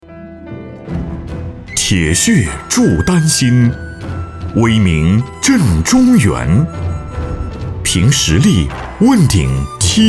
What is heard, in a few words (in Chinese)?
男 宣传 低沉磁性舒缓